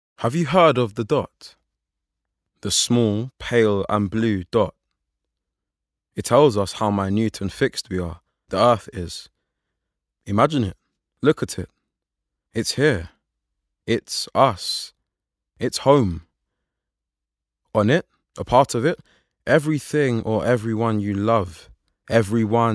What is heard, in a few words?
Narrative Reel